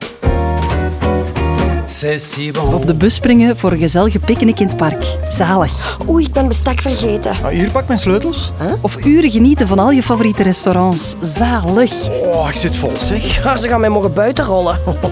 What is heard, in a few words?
radio vl; 30s; stib